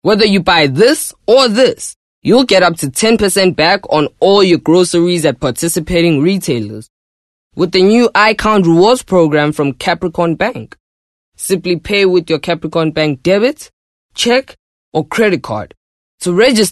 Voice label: English bright

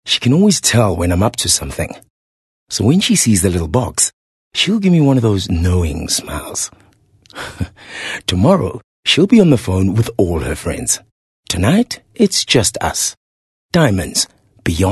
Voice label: English Conversational

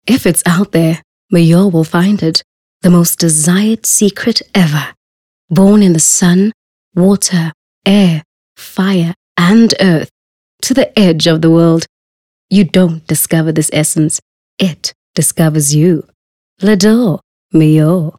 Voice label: English, intimate

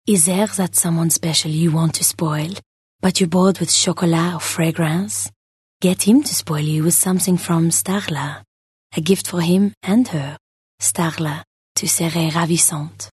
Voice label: English intimate French accent

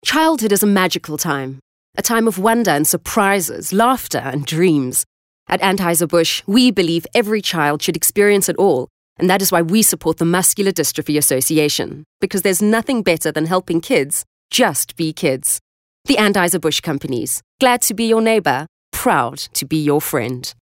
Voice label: English corporate

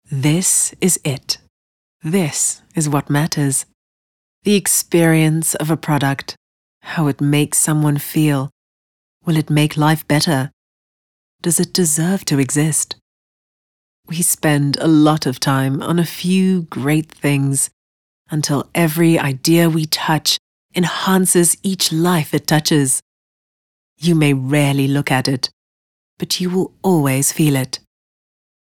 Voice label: English Corporate

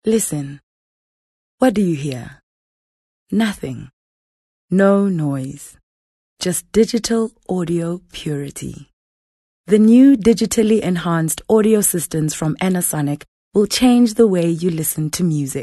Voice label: English Softsell

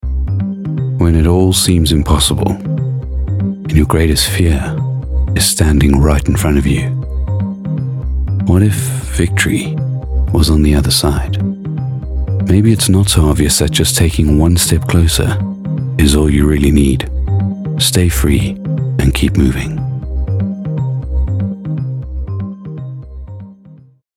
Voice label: Soft Sell Deep Neutral

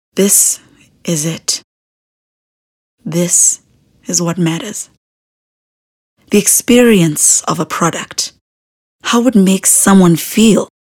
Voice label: English Intimate

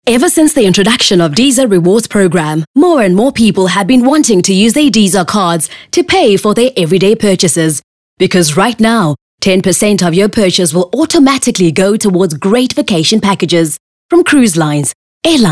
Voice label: English corporate

